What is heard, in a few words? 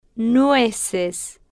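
"nueces", Spanish, female, slow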